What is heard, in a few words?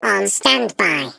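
spy penguin voice exit; tool spypenguin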